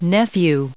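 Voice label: "nephew", English, us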